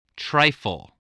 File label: "trifle", voice